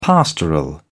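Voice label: "pastoral", British English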